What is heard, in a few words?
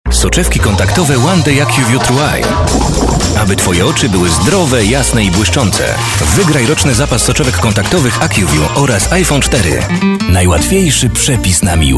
COMMERCIALS